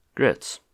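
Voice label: "grits", US English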